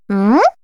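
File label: story, Japanese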